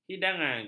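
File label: "hidangan", Malay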